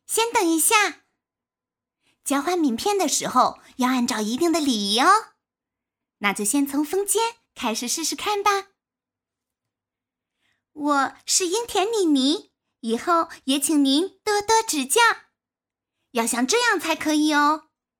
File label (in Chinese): 女童